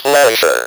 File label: "PLEASURE", Speak & Spell